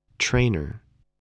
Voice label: "trainer", voice

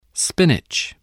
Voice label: "spinach", English voice

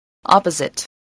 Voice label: "opposite", English voice

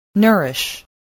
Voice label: "nourish", voice, spelling

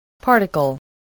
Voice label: "particle", English, voice

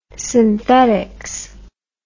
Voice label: "synthetics", English word

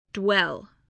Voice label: "dwell", English

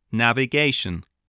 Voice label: "navigation", voice

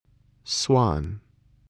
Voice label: "swan", English

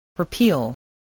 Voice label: "repeal", English

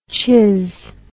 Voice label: "chizz", English

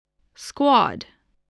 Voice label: "squad", voice

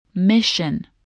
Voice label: "mission", voice, spelling

